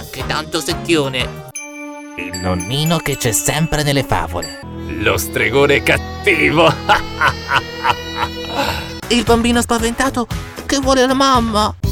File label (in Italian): VOCI PARTICOLARI